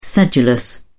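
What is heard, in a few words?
"sedulous", British English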